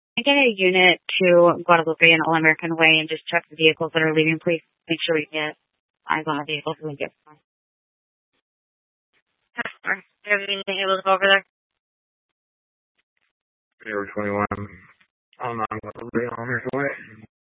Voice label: Tempe PD, scanner; Rgnl Wireless Co-op (RWC)

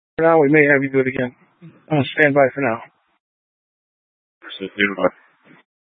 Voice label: Light Rail Emergency, scanner

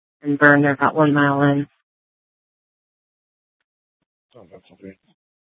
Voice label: Sheriff, scanner

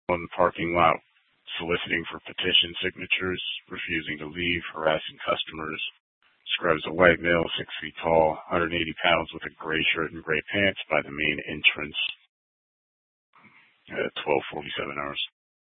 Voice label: PD Dispatch

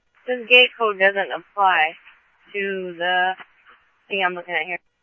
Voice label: police, scanner audio

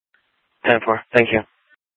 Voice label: Sheriff, Maricopa County P25; Shrf Lakes

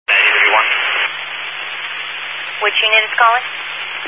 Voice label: Highway Patrol, Phoenix Metro West Area, scanner

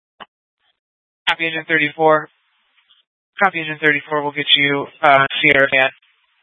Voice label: Phx PD Fire Dispatch

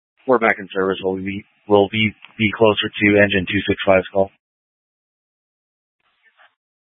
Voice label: Fire Departments, scanner; TOPAZ; C4 Gilbert, QC, Superstition; Mesa Simulcast

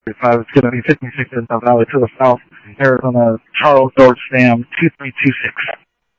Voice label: Police, scanner; H-Scottsdale; ScottsdalePolice

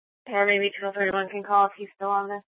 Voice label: Police South Dispatch; Scttsdle

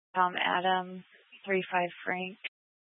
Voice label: Dispatch, scanner; Mesa PD Dpt; 4 Sprstn Dispatch

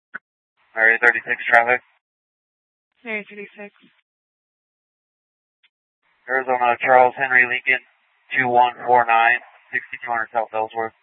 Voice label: Superstition Dispatch, Mesa PD

